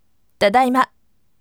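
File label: "tadaima", firm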